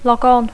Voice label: "logon", English; Dacco